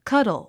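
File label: "cuddle", English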